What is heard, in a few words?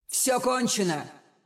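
Russian; 索杰恩（守望先锋2）; 终极技能; Все кончено!; 结束了！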